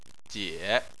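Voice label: "jie" third tone, Chinese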